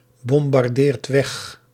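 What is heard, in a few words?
"bombardeert weg", Dutch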